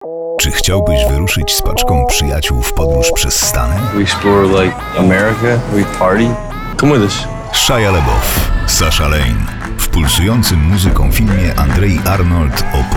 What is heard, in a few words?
radio; American Honey; reklama radio; poleca radio eska